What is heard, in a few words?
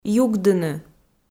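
"югдыны", Komi, female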